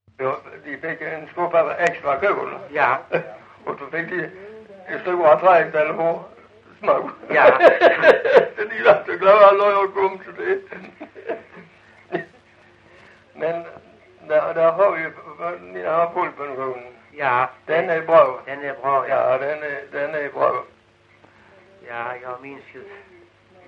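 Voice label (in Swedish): Lilla Harrie Skåne, dialekter svenska sagor; Folkminnen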